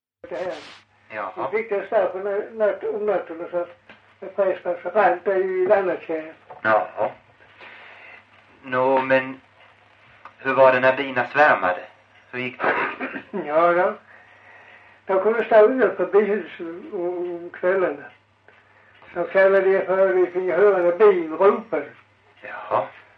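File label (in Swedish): Farstorp Skåne, dialekter; Folkminnen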